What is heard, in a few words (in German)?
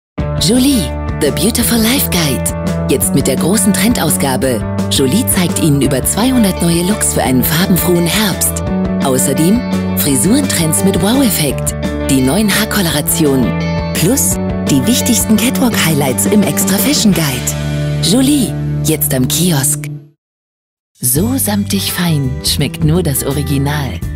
Werbung freundlich